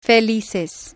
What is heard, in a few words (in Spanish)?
"felices", spanish